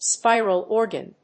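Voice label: "Spiral Organ", English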